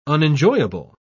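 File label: "unenjoyable", British English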